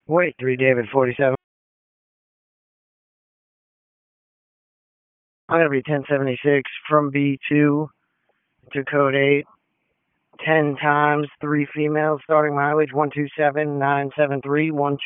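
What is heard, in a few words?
Dispatch 1, P25; WSO DISP 3; wake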